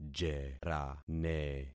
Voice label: "jerane", concat syllables; Affixing experiment